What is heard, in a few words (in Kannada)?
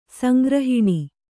"ಸಂಗ್ರಹಣ", Kannada